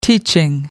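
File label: "teaching", British English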